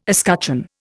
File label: "escutcheon", Indian